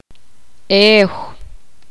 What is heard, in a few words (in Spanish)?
poqomam ayer